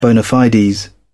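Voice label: "bona fides", British English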